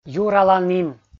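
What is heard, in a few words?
"юраланін", Komi, male; kpv audio dict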